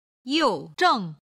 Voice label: "youzheng", Chinese